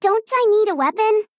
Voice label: Female Gnome